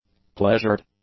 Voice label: "pleasured", english; adjectives